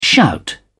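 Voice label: "shout", British English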